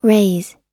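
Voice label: "raise", American English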